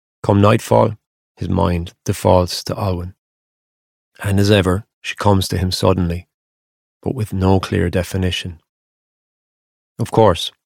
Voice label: Audiobook, regional accents